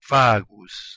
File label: "fagus" Latin